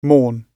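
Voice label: "mourn", British English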